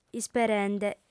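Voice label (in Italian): "isperende", lingua sarda, fem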